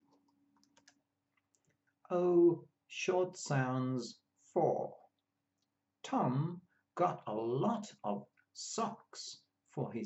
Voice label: O short sounds, pronunciation of australian english